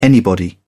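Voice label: "anybody", British English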